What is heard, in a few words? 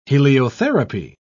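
"heliotherapy", British English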